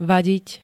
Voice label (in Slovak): "vadiť", Slovenské